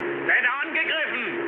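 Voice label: German aircraft damaged mood low, speech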